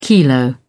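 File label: "kilo", British English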